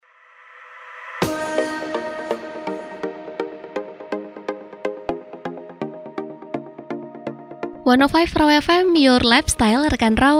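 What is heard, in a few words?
Indonesian casual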